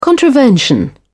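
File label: "contravention", British English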